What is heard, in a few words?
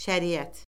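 "şəriət", Azerbaijani; Orfoqrafiya Lüğəti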